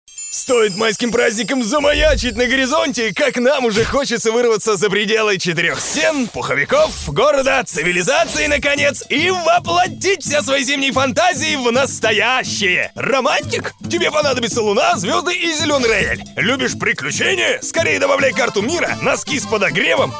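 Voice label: igr Rus, Russian playful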